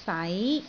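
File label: "sai2", Cantonese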